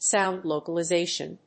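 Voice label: "sound localization", English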